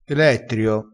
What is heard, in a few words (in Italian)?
"elettrio", vocabolario dialettale